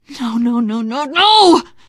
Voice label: reaction negative, teen girl; source engine; left 4 dead 2; survivor

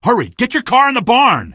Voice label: get car in barn, male; Source Engine; video game; coast

